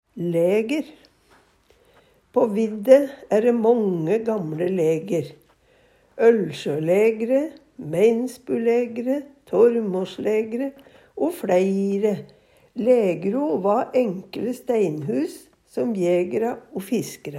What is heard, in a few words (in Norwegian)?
laeger, Numedalsmål; Lægro va enkle steinhus som jægra o fiskara bruka.